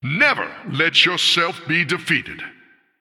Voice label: Male Human Flavor